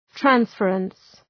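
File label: "transference", English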